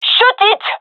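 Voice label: request quiet, female; vo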